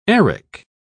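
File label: "arak", British English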